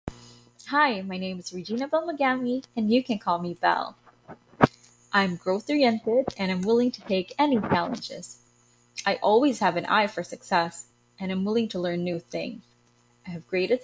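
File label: Voice Recording, Self Introduction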